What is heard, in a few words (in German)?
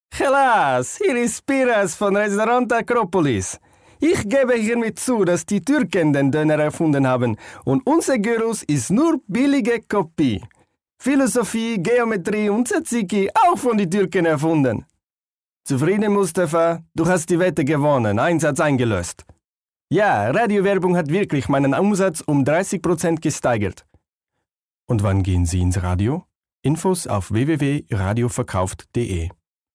Werbung